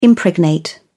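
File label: "impregnate", British English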